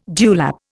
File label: "dewlap", Indian